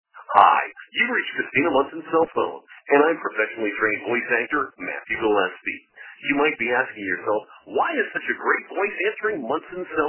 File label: Voicemail